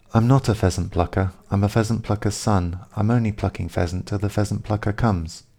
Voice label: english pheasant slow; phon lab